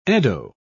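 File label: "eddo", British English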